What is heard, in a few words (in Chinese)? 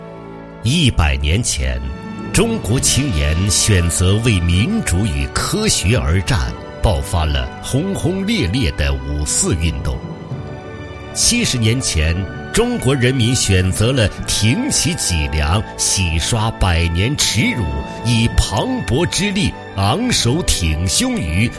男-颁奖-开场